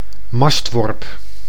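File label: "mastworp", Dutch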